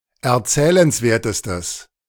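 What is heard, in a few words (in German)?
"erzählenswertestes", German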